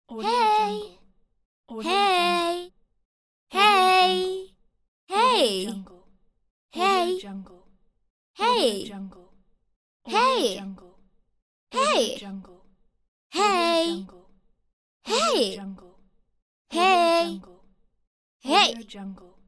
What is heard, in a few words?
teenage girl saying hey pack